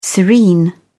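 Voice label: "serene", British English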